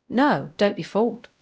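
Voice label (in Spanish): Voice Acting, es, female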